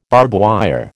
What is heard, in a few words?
"barbwire", American